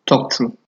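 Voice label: "talk true", Nigerian Pidgin, male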